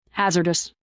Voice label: fem